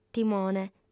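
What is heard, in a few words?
"timone", Sardinian, female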